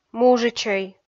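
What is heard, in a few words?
"мужичӧй", Komi, female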